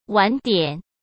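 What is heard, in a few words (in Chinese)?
"晚点", Mandarin Chinese; hsk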